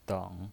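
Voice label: "deng" Mandarin Chinese, third tone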